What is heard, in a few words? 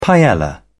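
"paella", British English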